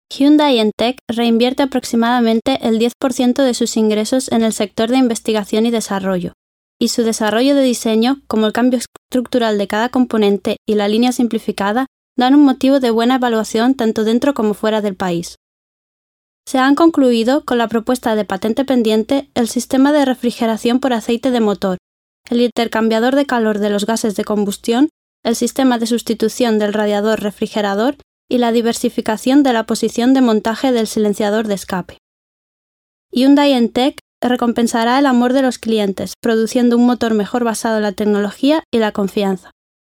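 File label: Spanish EU, female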